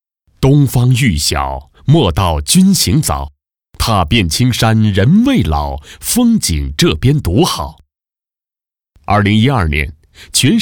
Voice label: 男 检察院政府宣传, 国语男声配音

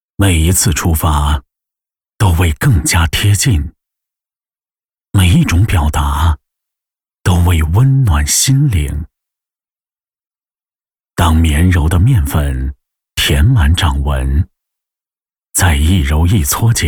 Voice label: 男, 独白 面馆广告配音; 银丝

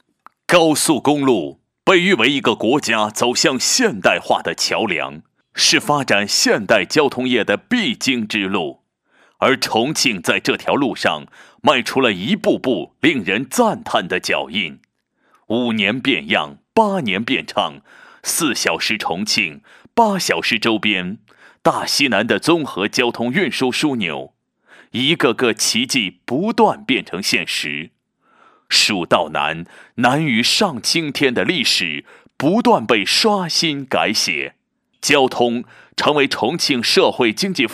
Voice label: 男40 专题 干音, 国语男声配音; 重庆交通

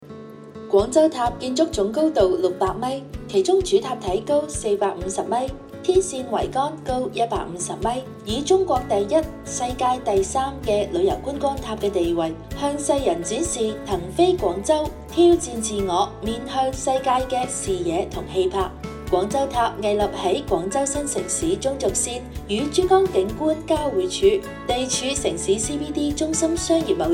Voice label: 粤语甜美风广州塔介绍

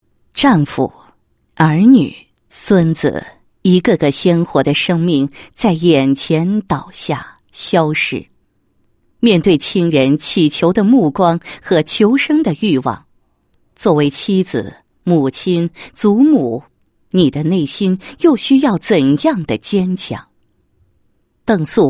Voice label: 女 人物 短片; 第四届孝老爱亲道德模范邓某短片

